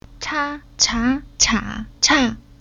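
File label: "Cha", Chinese syllable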